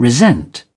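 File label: "resent", British English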